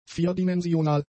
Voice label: "vierdimensional", German; adjectives